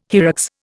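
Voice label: "hyrax", Indian English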